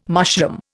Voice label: "mushroom", Indian

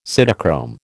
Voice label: "cytochrome", American

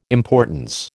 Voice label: "importance", American

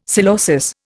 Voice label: "psilosis", Indian; Dictionary

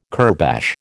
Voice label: "kurbash", American

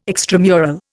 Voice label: "extramural", Indian

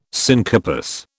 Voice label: "syncarpous", British

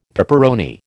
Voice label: "peperoni", American

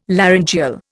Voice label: "laryngeal", Indian

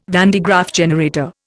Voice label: "van de Graaff generator", Indian

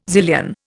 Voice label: "zillion", Indian

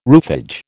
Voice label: "roofage", American

